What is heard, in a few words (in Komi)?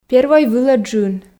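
первой вылӧ джын, Komi, female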